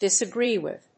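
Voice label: "disagree with", English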